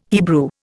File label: "Hebrew", Indian